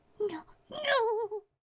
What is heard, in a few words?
Female Reactions